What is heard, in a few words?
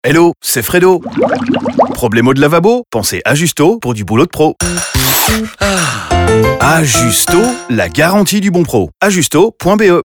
Radio FR 10s; Ajusto